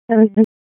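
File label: Fire Department Dispatch, p25; wake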